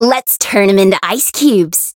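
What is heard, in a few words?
Voice encounter fear; yukino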